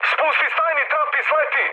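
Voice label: Serbian bailout mood high, speech; War Thunder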